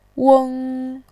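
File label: "weng", Mandarin Chinese, tone 1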